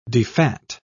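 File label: "defat", British English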